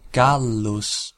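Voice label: "Gallus", pronunciation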